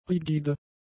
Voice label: "rigide", German; adjectives

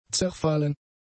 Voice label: "zerfallen", German; adjectives

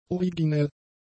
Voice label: "originell", German; adjectives

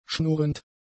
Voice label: "schnurrend", German; adjectives

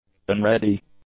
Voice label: "unready", english; adjectives

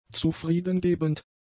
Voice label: "zufriedengebend", German; adjectives

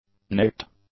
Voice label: "nicked", english; adjectives

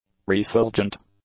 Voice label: "refulgent", English; adjectives

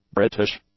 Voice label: "British", English; adjectives

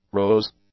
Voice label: "rose", English; adjectives

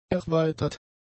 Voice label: "erweitert", German; adjectives

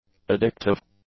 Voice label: "addictive", English; adjectives

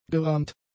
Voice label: "gerammt", German; adjectives

